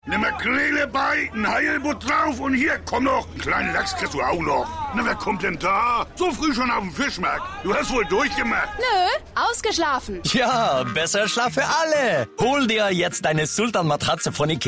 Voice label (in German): dialekt